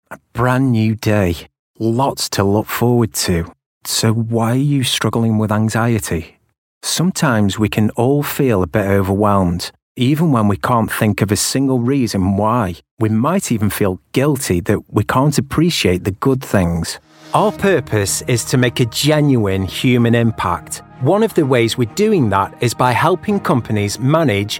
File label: corporate narration